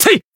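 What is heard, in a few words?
attack, Japanese; joker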